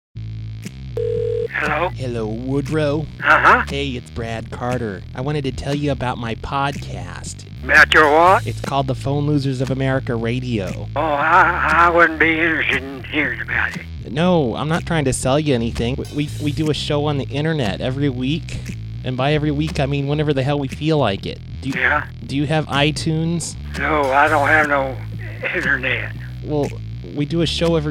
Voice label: promo with music